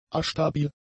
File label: "astabil", German; adjectives